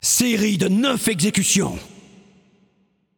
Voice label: Announcer French Spree 9 Kills

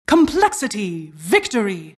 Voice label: announcer team victory

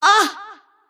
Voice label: kid pain; Invoker

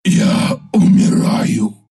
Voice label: death, ru; Sven; Dota 2

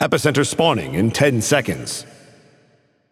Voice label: Announcer Survival Begin; Paladins

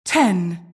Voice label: announcer countdown 10

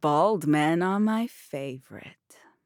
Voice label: Taunt Directed; ChurchHill; Buck

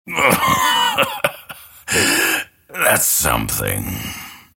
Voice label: lasthit pain; dota2; grimstroke

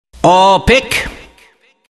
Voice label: announcer all pick; kleiner

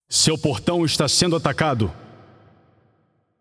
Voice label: Announcer PTBR Match Under Attack Gate; Paladins

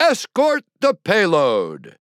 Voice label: Announcer Match Role Attack; Bruce

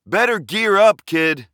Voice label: Warrior Taunt; Realm Royale

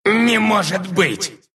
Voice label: Monkey death, ru; Dota 2

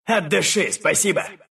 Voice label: thanks, ru; dota2

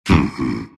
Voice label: anger; Oracle

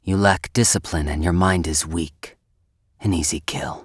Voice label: Assassin Taunt; Realm Royale; Shinobi

